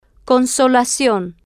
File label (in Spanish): "con-so-la-cion", Spanish